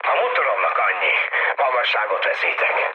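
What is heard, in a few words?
Hungarian damaged engine mood high, speech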